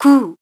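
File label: voice Korean, keytone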